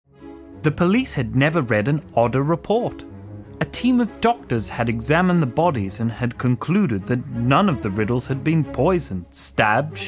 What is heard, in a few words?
Narration